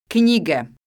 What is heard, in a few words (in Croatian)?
"knjige", croatian